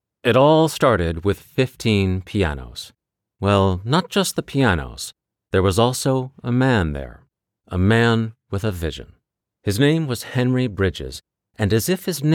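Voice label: narration, equal access arts education; Charlotte Arts Education - storytelling - convincing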